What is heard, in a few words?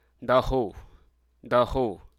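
Hruso Aka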